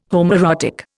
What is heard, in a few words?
"homoerotic", Indian